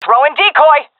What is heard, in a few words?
throwing decoy, seal fem; vo; Counter Strike Model Pack; CS:GO